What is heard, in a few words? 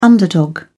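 "underdog", British English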